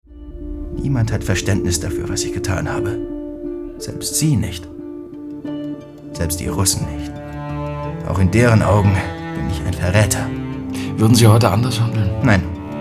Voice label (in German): DEUTSCH MALE Hoerspiel; Audible; Vergessene Seelen; LOFT VOICES